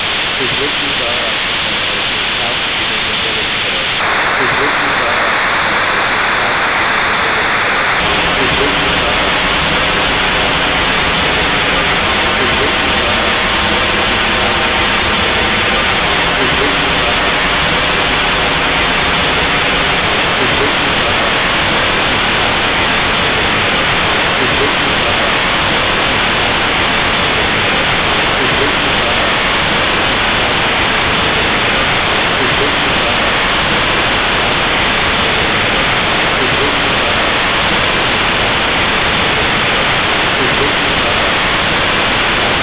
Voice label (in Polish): glos szum szerokopasmowy